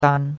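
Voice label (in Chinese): "dan1", Chinese; Cours-de-Chinois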